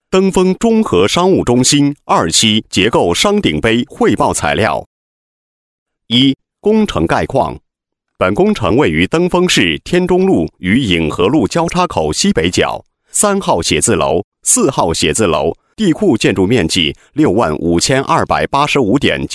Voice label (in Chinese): 男99-项目介绍-多媒体; 登封